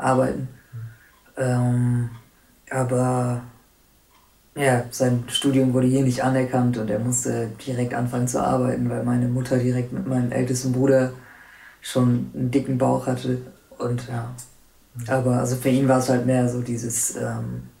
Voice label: punjab pakistan